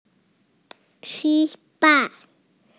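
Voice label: "chi1 fan4", Mandarin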